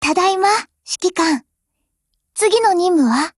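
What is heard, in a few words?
OPERATION OVER Japanese, Voice; GF Alarm; 9A91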